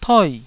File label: "toi4", taiwanese Syllables